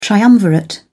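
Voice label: "triumvirate", British English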